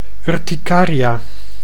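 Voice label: "urticaria", Dutch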